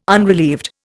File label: "unrelieved", Indian